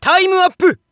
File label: Narration TIME UP; Hanyou